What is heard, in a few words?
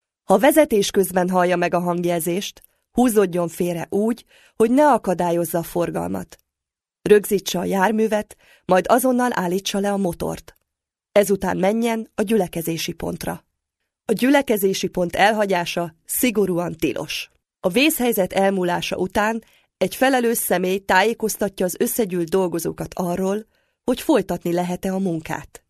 Hungarian, F, Narration